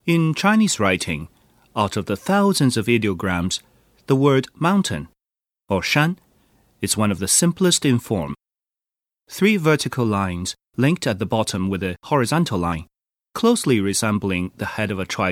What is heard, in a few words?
ENG